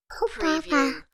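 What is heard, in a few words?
Toddler Nonsense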